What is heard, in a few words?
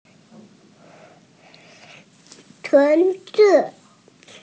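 "qun2 zi5", Mandarin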